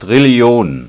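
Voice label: "Trillion", German; ZAEHLEN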